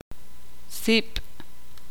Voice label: "humo", ixil

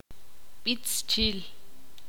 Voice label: cantar, ixil

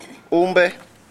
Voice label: "hombre", saliba

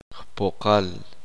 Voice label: uspanteko polvo

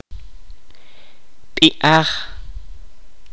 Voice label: "nombre", Kaqchikel

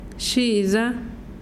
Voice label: hoja de arbol, mazahua

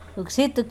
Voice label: Nawat palabras maduro; pipil

